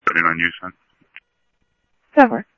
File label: scanner audio trunk; ASU PD F-1